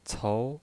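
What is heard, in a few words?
"cou", Mandarin Chinese, second tone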